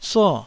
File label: "suo4", Taiwanese